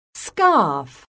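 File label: "SCARF", English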